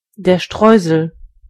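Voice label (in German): "sprinkle", German; gcompris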